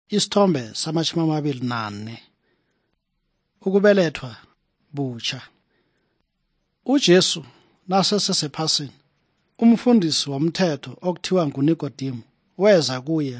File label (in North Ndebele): good news ndebele southern, drama; bible; for zimbabwe